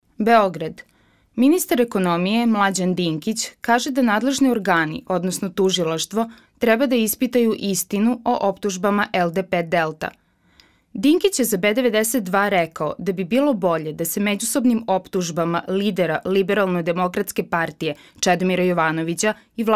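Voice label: serbian female narration; voice demos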